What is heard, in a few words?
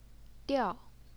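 "diao", fourth tone, Chinese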